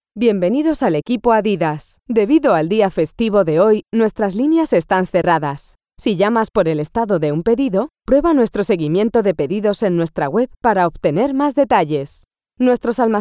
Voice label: spanish ivr